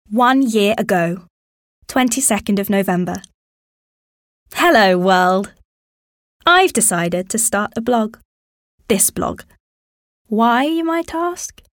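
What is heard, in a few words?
British English, Narration; GoLocalise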